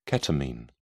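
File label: "ketamine", British English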